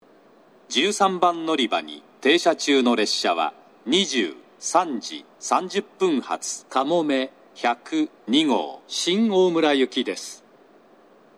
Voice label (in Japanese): 長崎 西九州新幹線ホーム 自動放送, 13番のりば停車中放送